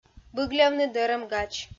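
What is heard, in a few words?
"быглявны дӧрӧм-гач", Komi, female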